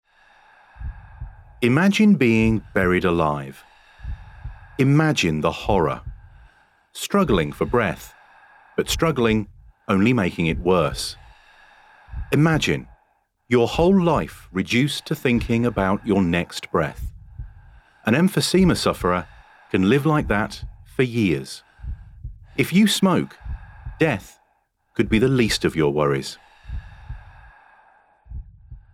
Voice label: SMOKING PSA